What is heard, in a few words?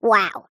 cartoon character high pitched says "wow"